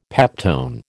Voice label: "peptone", American